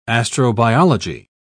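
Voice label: "astrobiology", British English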